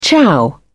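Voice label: "ciao", British English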